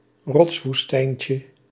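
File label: "rotswoestijntje", Dutch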